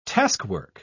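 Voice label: "taskwork", British English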